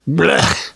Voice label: reaction disgusted, survivor voice; namvet; Left 4 Dead 2; left4dead2 dlc2